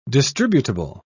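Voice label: "distributable", British English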